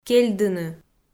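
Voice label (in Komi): "кельдыны", Komi, female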